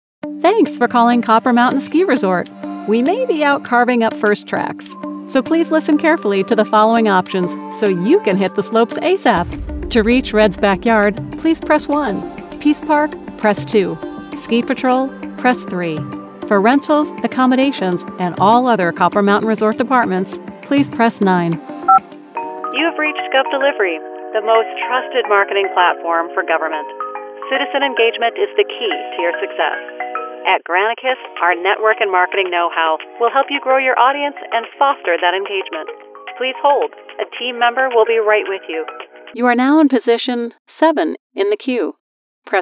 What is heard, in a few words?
Telephony